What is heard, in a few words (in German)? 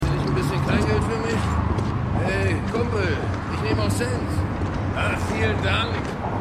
Obdachloser; The Wire